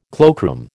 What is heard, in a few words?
"cloakroom", American